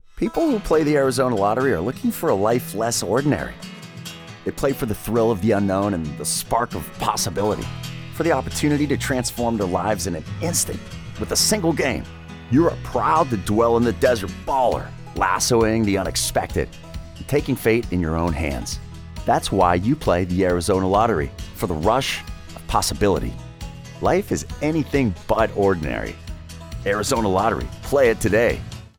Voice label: conversational male voice over lottery; arizona